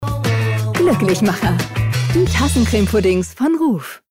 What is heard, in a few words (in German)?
werbespot; tv-spots; ruf